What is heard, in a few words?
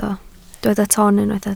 "toon", Welsh